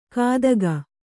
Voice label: "ಕಾದಗ", kannada